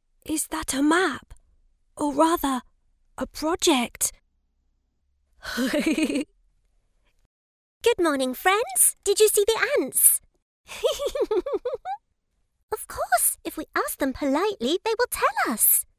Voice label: locutora inglesa english cartoon dubbing